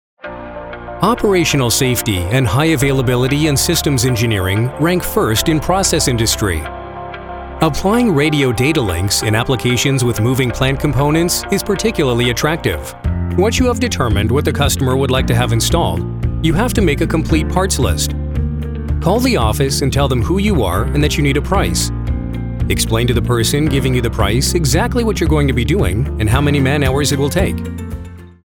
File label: corporate